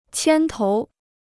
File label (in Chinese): "牵头", Chinese